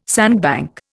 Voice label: "sandbank", Indian